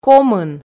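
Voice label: "комын", Komi, female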